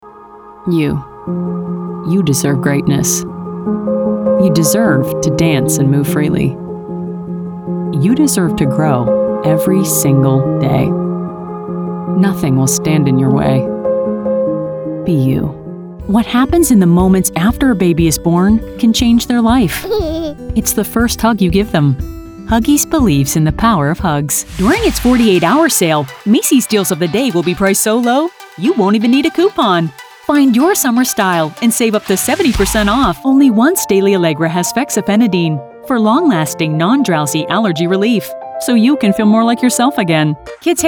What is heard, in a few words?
Commercial; voix générées par l’intelligence artificielle